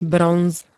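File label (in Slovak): "bronz", Slovak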